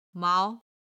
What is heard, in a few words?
"mao2", Chinese